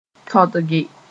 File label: "tõdge", Mooré; refuse